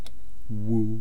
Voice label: "wuu", East Cree syllabics; language